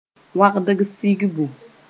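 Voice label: "wãgds sɩgbo", Mooré; vowel harmony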